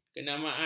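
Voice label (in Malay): "kenamaan", Malay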